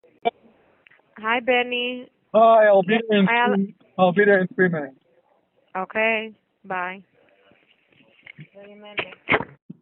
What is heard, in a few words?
call recording